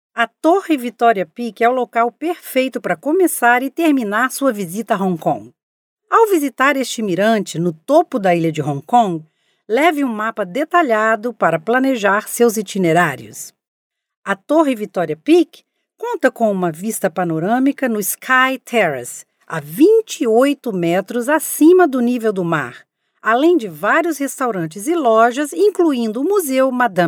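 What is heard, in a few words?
Brazilian female corporate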